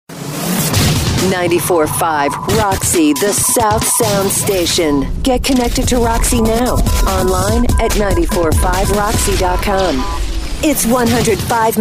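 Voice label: radio hot adult contemporary; radio imaging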